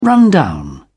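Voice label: "run down", British English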